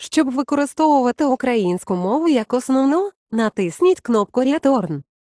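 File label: Voice Over Instructions Ukrainian; Language Chooser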